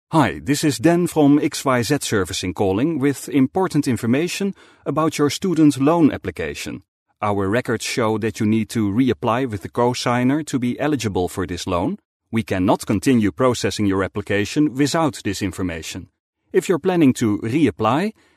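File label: Dutch English, male, heavy Dutch accent; accent of the netherlands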